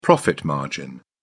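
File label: "profit margin", British English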